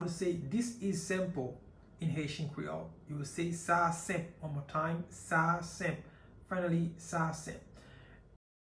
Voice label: This is simple in Haitian Creole Sa a senp pronunciation by a Haitian teacher